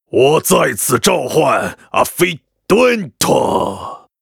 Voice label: Ogre beastmaster pissed